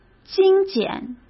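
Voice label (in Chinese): "精简", Mandarin Chinese; hsk; chinese-flashcards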